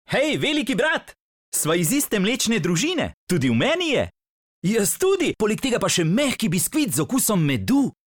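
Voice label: slovenian male commercial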